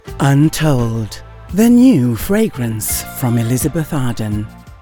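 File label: commercial, voiceover; Elizabeth Arden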